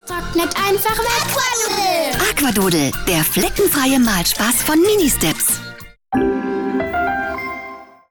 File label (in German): Freundlich; Fremdtake